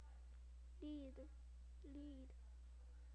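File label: "lead", spoken word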